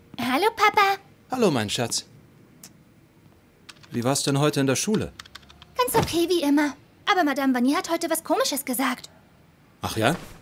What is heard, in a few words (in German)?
Synchron, frauen; SRF; Linas Welt